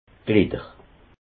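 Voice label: "glidder", Alsatian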